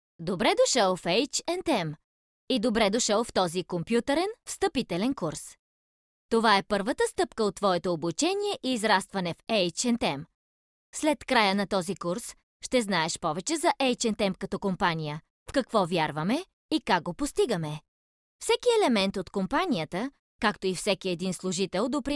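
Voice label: bulgarian female e-learning; voice demos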